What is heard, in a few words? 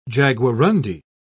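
"jaguarondi", British English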